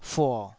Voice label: "fuo3", Taiwanese syllable